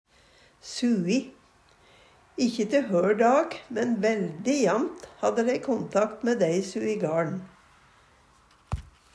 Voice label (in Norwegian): sui, Numedalsmål